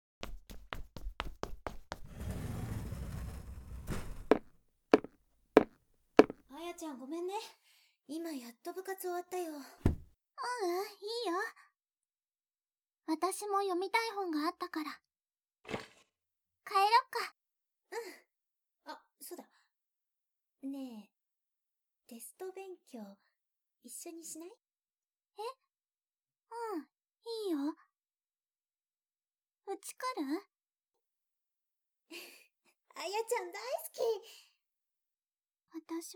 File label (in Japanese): BGMなしトラック1 シナリオ, ASMR